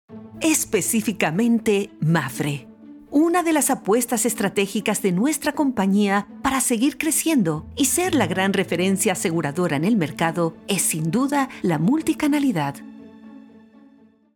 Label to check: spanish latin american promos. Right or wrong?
Right